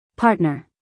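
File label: "partner", American English female; everyone-can-use-english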